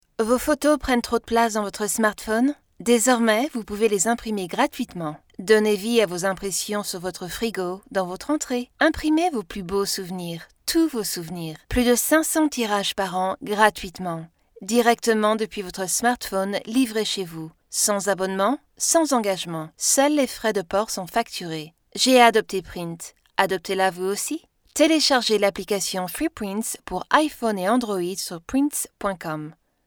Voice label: Home studio test French read

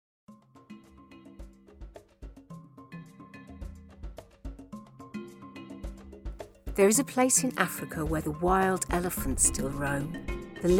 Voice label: narration compilation

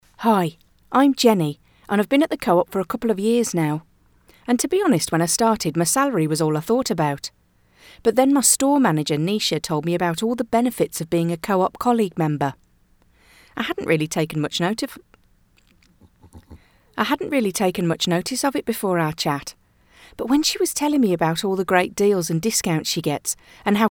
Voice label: Midlands accent; Co-Op Benefits

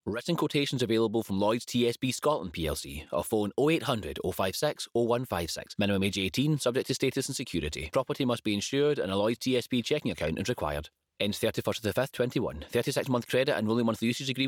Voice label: Legals

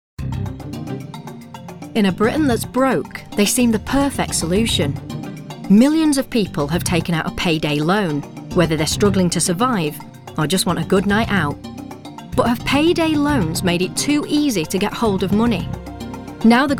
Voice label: Narrative

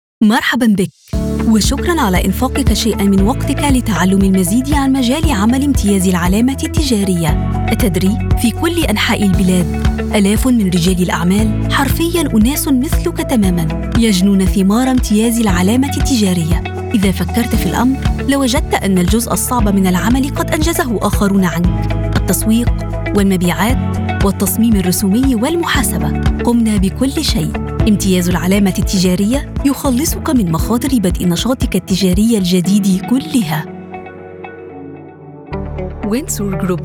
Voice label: corporate reel

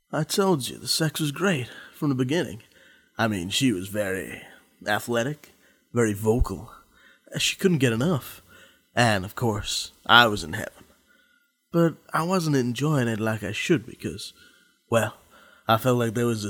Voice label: American

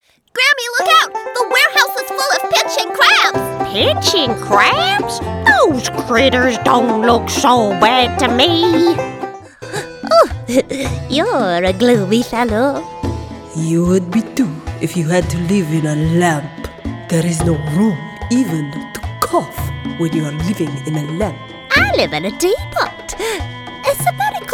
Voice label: Character Animation

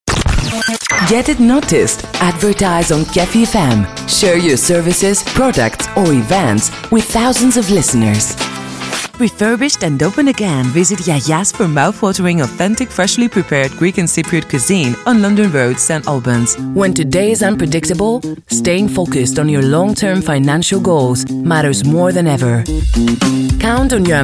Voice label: EN Commercial

